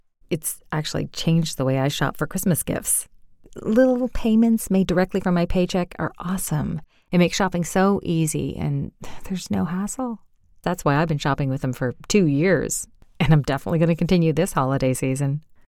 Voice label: Testimonial Real Natural

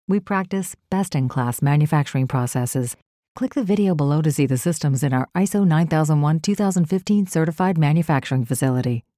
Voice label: Factory Smooth Professional

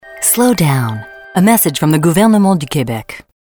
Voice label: Quebec corporate

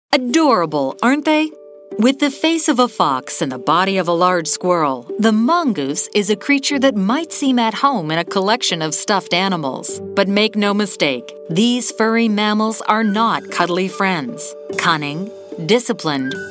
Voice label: Educational Animated; Mongoose

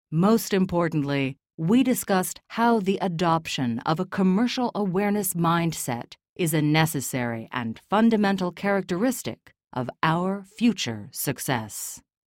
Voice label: Mining Serious Deep

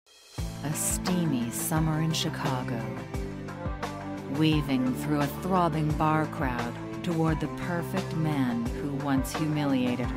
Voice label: Contemporary Edgy Serious

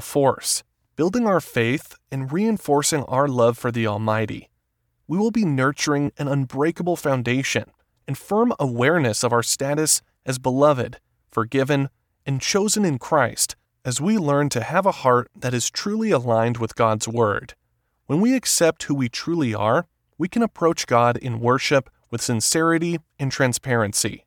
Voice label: Christian Audiobook Narration Non Fiction

